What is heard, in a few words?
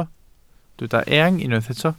"eang", welsh